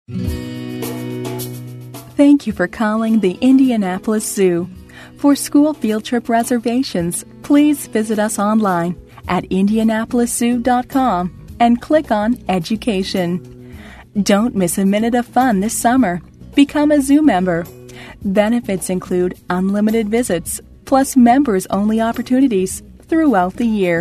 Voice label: OnHold